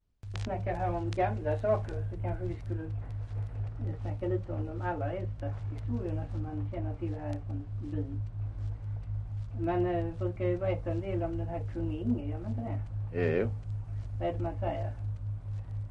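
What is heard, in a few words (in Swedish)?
Östra Ingelstad Skåne, dialekter; Folkminnen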